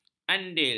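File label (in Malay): "andil", Malay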